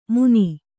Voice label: "ಮುನಿ", Kannada

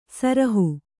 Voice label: "ಸುರುಹು", Kannada

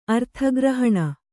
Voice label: "ಅರ್ಥಗ್ರಹಣ", Kannada

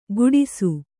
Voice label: "ಕುಡಿಸು", Kannada

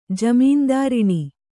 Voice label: "ಜಮೀನ್ದಾರಿಣಿ", Kannada

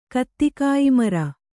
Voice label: "ಕತ್ತಿಕಾಯಿಮರ", Kannada

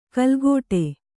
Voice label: "ಕಲ್ಗೋಟೆ", Kannada; kalgōṭe; noun

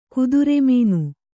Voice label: "ಕುದುರೆಮೀನು", kannada

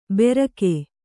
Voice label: "ಬೆರಕೆ", Kannada; berake; noun; something made by mixing

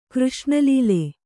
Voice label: "ಕೃಷ್ಣಲೀಲೆ", Kannada

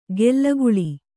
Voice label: "ಗೆಲ್ಲಗುಳಿ", kannada

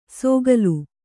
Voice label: "ಸೋಗಲು", Kannada

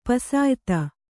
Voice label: "ಪುಸಿಯೋತ", kannada

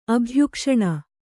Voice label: "ಅಭಯಘೋಷಣೆ", Kannada

